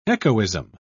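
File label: "echoism", British English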